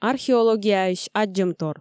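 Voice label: "археологияысь аддзӧмтор" Komi, female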